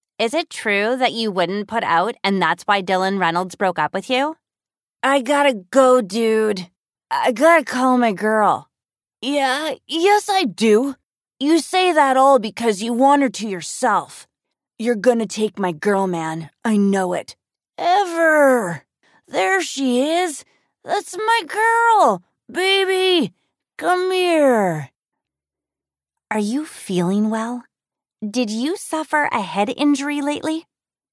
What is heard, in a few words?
Audio Book Character